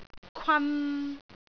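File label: "kwan3", Cantonese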